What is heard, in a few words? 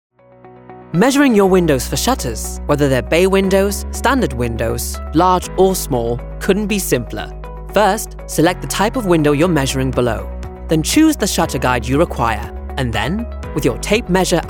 RP Corporate